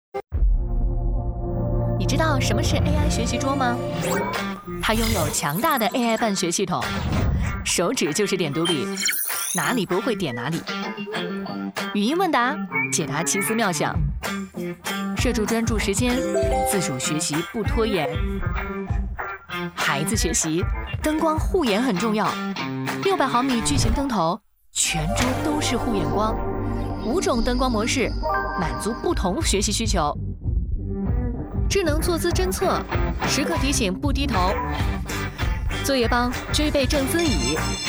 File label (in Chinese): 时尚 知性